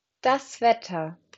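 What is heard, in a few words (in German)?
"Wetter", German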